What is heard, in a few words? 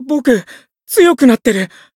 growth, jp